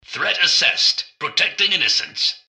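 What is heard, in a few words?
attack, robot; npc; mrgutsy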